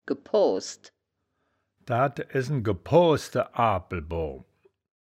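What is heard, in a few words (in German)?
"gepoosd", wittlicher woerterbuch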